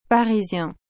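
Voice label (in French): "parisien", French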